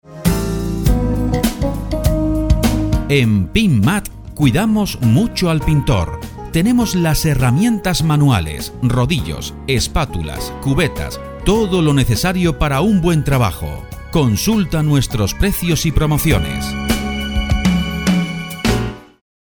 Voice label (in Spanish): HERRAMIENTAS MANUALES ESPANOL; pinturas andalucia